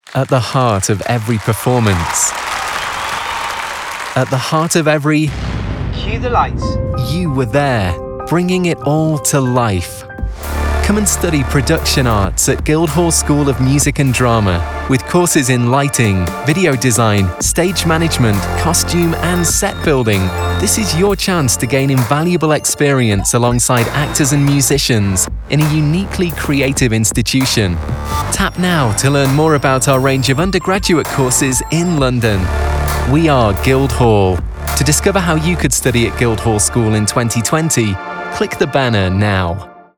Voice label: Voiceover, Voice Over Example; Spotify

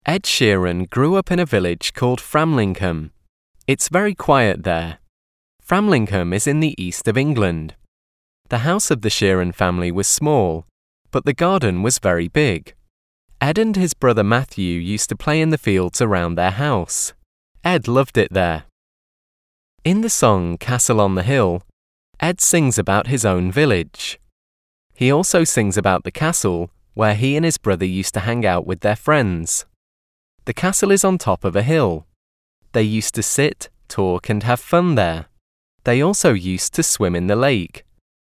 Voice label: English Language Course Narration; professional English voice talent with a neutral or northern UK accent

